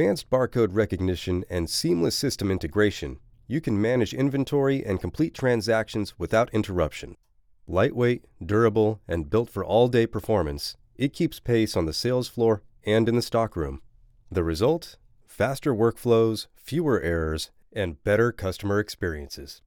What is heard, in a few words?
Scanner